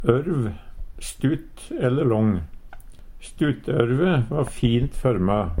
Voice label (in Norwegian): stuttorv, Numedalsmål; Stuttørve va fint førma.; Substantiv inkjekjønn